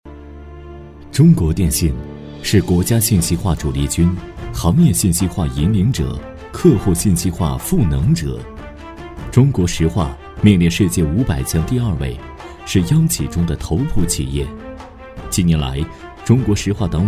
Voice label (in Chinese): 男 党建专题; 厚重有力 大气浑厚